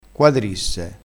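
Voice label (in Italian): "quadrisse", vocabolario dialettale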